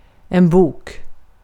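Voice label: Swedish vocabulary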